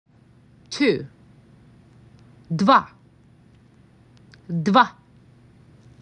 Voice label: MAC 6, macedonian phrasebook